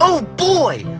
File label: "oh boy", male